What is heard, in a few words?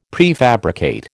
"prefabricate", American